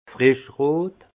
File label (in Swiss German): "frischrot", alsace; vin; rouge vif